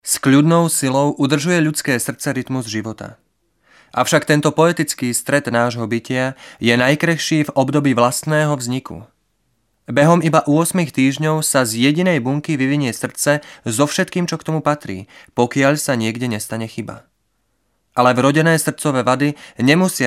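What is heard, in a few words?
Male Slovak documentary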